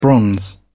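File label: "bronze", British English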